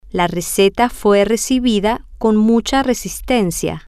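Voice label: la receta fue recibida con, Spanish, female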